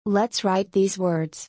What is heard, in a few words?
soft wow